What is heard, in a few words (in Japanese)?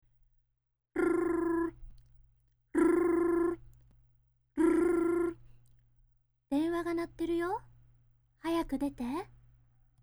tel girl